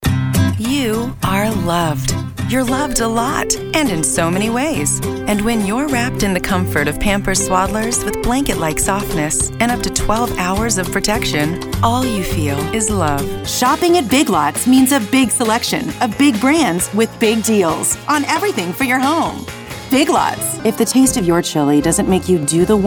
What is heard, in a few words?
Commercial